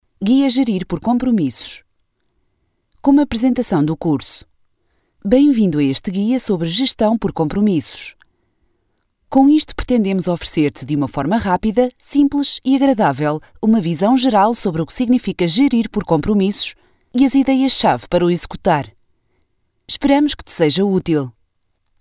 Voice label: locutora portuguesa elearning